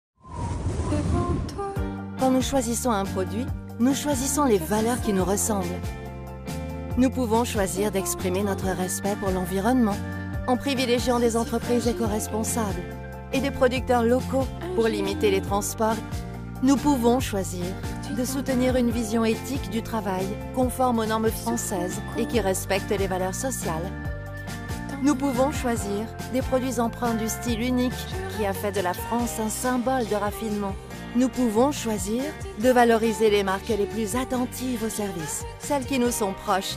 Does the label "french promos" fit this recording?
Yes